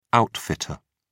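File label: "outfitter", British English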